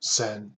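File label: "cents", US English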